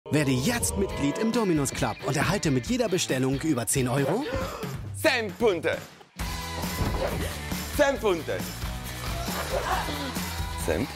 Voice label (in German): club tvspot; dominos; jorge gonzalez